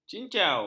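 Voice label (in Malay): "cincau", Malay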